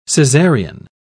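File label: "cesarean", British English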